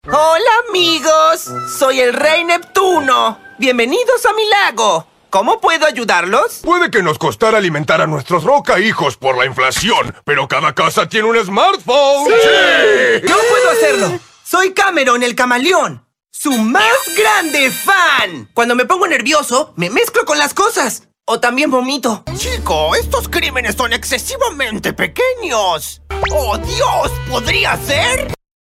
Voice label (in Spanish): animacion